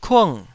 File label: "kung4", Taiwanese syllable